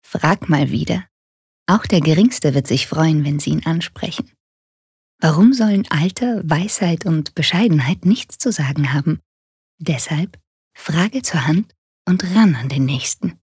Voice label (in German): Werbung Sanft